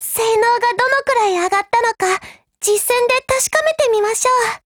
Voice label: JP; ASVALMod

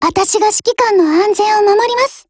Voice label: HELLO JP; Z62

